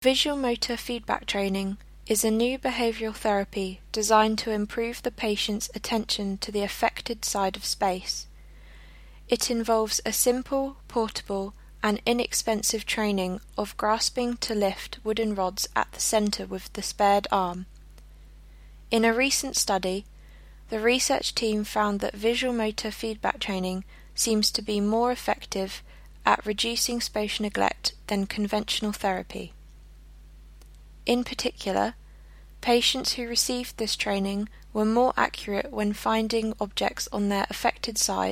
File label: voice note; Visuomotor feedback training is a new behavioural therapy